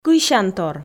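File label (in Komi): "кыйсянтор", Komi, female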